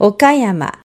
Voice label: "okayama", Japanese

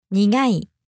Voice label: "nigai", japanese

